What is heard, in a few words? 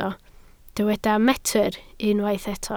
"metr", Welsh